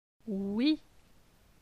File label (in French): "Oui", les voyelles; phonetique